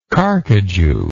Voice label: "carcajou", English

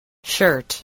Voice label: "shirt", spelling

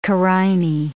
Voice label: "carinae", English

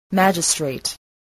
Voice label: "magistrate", spelling voice

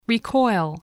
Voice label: "recoil", voice, english

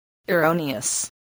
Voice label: "erroneous", voice, english

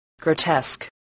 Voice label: "grotesque", spelling

